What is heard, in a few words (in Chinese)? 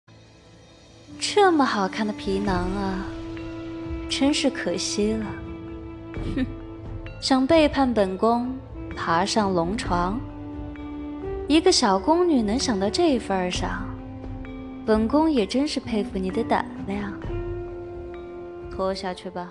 御姐